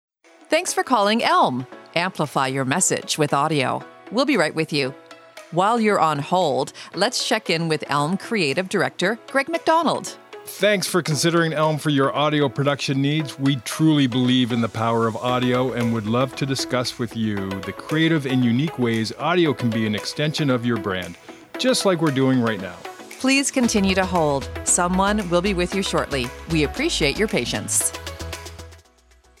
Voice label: Unique On Hold Messaging Example